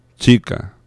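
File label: "chica", Spanish; laboratori fonetica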